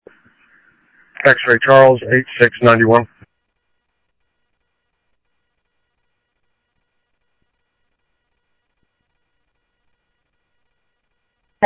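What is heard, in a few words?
Scottsdale Police Department PD1 Scottsdale Police, scanner audio; SCTSDLE PD - PD1; H-Scottsdale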